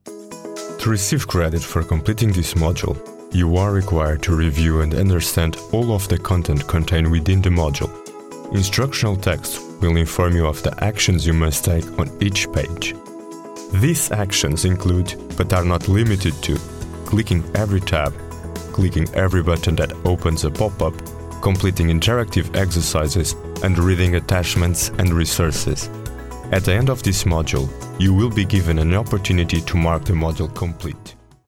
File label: English